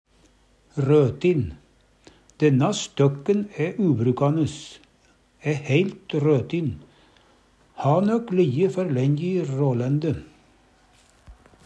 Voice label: rotin, Numedalsmål

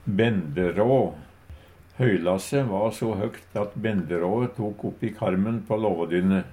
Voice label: "benderå", Numedalsmål; Substantiv hokjønn

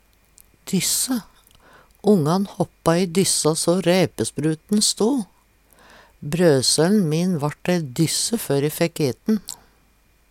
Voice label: "dysse", Numedalsmål

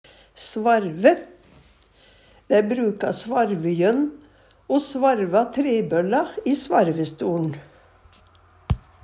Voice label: svarve, Numedalsmål; Verb; Handverk (metall, tre, lær)

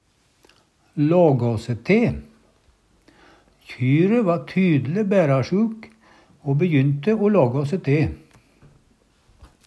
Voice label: laga se te, Numedalsmål; lage seg til; Kjyre va tydle bærasjuk, ho bejynte o lågå se te.

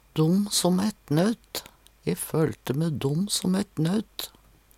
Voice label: "dom som ett naut", Numedalsmål; dum som eit naut; E følte me dom som ett naut.; Uttrykk

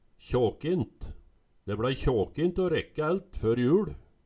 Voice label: kjåkint, Numedalsmål

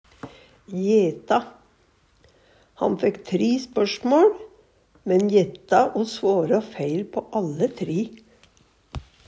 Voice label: jeta, Numedalsmål

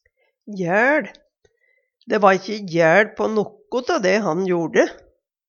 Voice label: jaeL, Numedalsmål

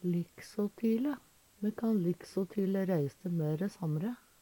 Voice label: "liksåtile", Numedalsmål; Veggli; Adverb

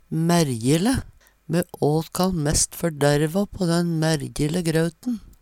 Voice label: mærjele, Numedalsmål; Adverb

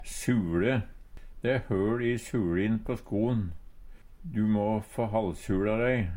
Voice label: suLe, Numedalsmål; Substantiv hankjønn; Personleg utstyr, klede, sko

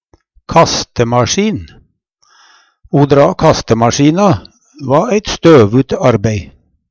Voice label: kastemasjin, Numedalsmål

